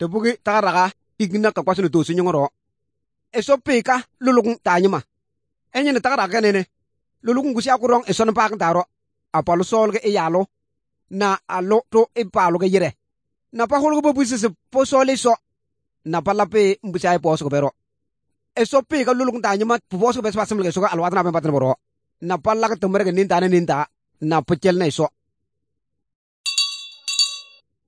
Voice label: good news, kabiye bible